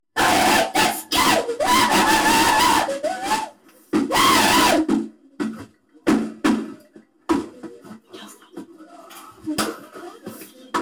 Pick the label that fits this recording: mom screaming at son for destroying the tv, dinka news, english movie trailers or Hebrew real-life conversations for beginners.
mom screaming at son for destroying the tv